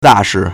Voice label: "da4 shi4", Chinese

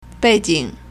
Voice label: "bei4jing3", Chinese

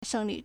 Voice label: "sheng4li4", Chinese

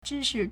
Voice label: "zhi1shi1", Chinese

